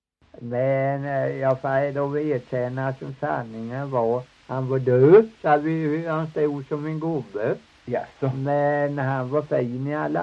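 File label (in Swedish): Torhamn Blekinge, dialekter; Folkminnen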